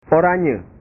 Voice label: "oranye", bahasa

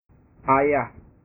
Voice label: "ayah", bahasa

